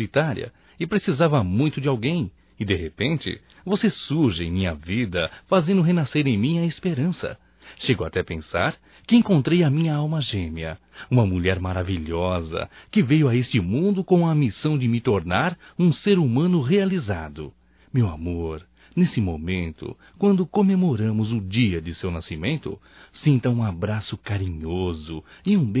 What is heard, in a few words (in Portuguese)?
Aniv Romântico (M), masc; Telemensagens 2017; Aniversarios